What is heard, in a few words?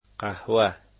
"coffee", dari